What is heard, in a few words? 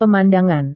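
"pemandangan", Indonesian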